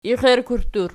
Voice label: "ixittur", Archi